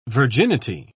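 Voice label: "virginity", English, British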